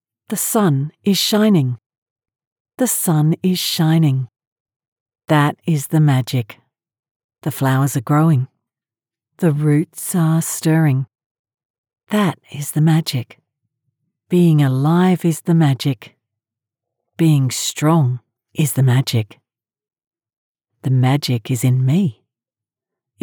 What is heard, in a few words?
Reading Quotes